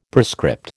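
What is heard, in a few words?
"prescript", American English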